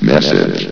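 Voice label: "message", announcer voice; Source Engine; bshift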